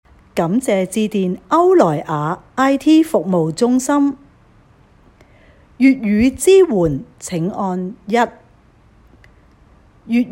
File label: Cantonese Clean, Cantonese Female